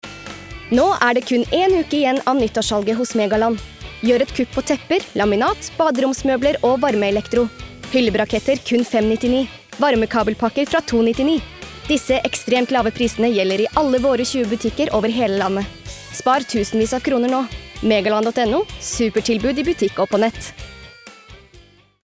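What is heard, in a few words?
Norwegian hard sell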